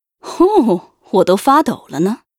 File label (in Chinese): Archer pissed